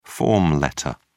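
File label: "form letter", English, British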